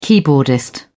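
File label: "keyboardist", British English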